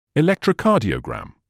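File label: "electrocardiogram", British English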